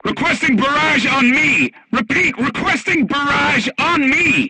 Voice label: voice message art command oneself, crew tanks vietnamese